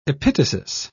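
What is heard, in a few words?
"epitasis", British English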